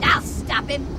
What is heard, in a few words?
grunt; h3dialogue; staten